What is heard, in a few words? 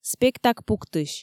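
"спектак пуктысь", Komi, female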